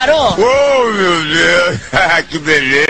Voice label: vinheta oh meu deus que beleza; panico

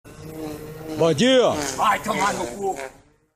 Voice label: bom dia e vai tomar no cu hehehehe e tom de toque

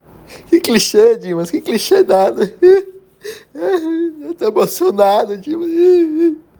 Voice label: emocionado

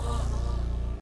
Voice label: vinhetas radio anos 90 e 2000; radio globo

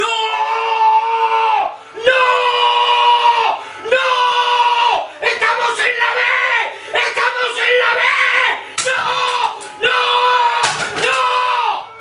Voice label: torcedor muito irritado; torcedor do river plate

